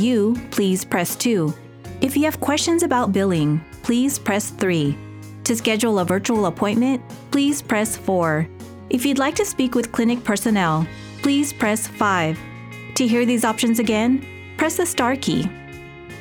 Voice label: Telephony Urgent Care